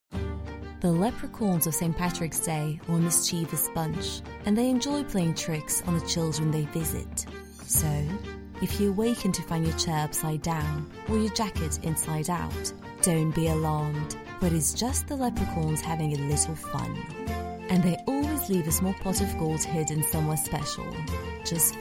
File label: eng narration